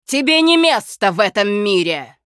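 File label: Hero Critical Hit Russian, Женщина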